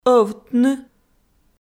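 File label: "ӧвтны", Komi, female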